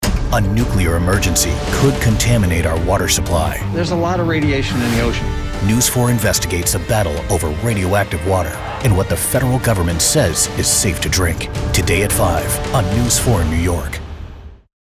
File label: Contaminated Water Urgent Compelling Persuasive